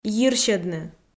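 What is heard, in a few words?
"йирсьӧдны", Komi, female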